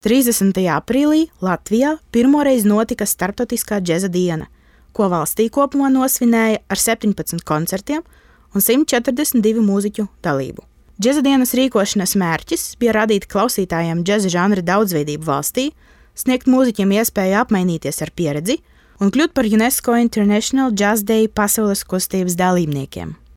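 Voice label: Latvian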